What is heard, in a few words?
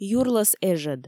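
"юрлӧс эжӧд", Komi, female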